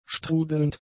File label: "strudelnd", German; adjectives